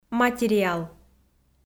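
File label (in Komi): "материал", Komi-Zyrian, female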